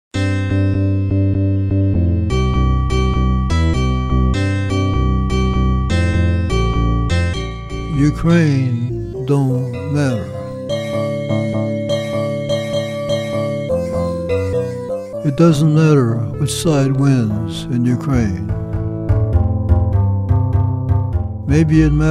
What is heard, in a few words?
Narration